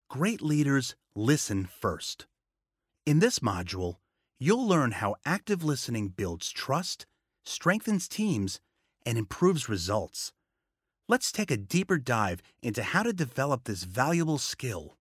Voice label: E-Learning Inspiring